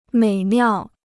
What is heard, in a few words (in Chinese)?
"美妙", Chinese